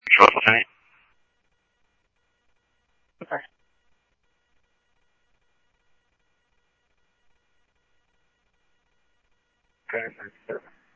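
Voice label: police department, scanner audio trunk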